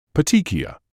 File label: "petechia", English